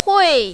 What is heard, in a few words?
"hui4", mandarin, female